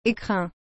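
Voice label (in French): "écrin", French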